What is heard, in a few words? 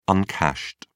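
"uncashed", British English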